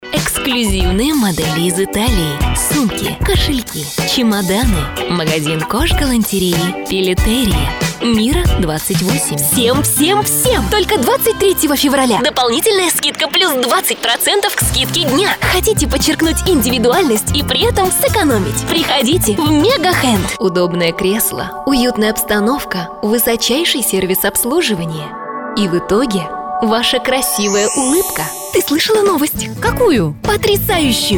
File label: Rus